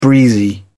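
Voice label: "breezy", British English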